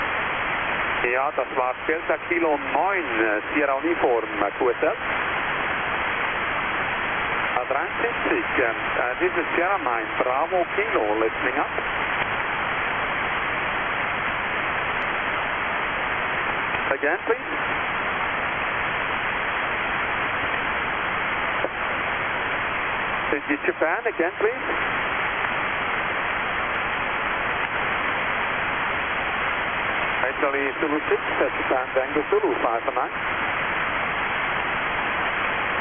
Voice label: on 21 MHz SSB; s9bk sao tome principe